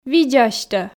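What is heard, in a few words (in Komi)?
"видз асьтӧ", Komi, female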